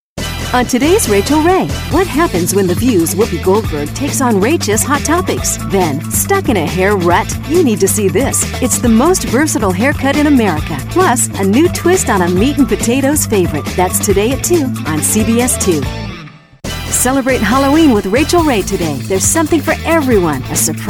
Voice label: Promos